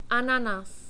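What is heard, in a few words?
"anan", czech, male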